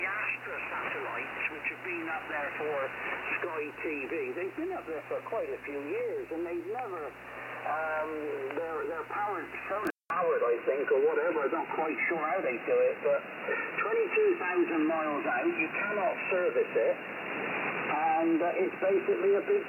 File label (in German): LSB Amateurfunk; HF1000; R9500